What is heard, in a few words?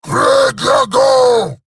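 Deploy Drone, Dialog Barks Battle, English; Warframe; EidolonGrineer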